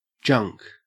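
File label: "junk", Australian English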